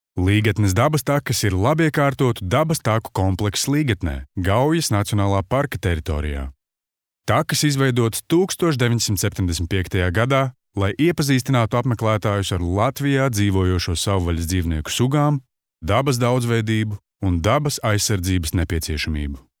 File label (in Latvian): Stāstījums